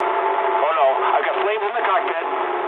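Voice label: English on fire mood low; War Thunder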